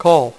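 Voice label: "call" spoken, poker; iPoker 2000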